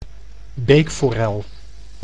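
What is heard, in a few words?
"beekforel", Dutch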